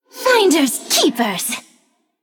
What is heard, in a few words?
Female Banshee Attack